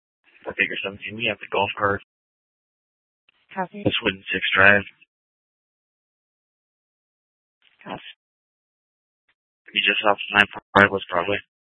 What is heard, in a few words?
Mesa PD Fiesta Dispatch